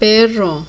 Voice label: "perro", spanish